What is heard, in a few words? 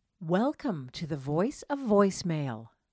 voice of voicemail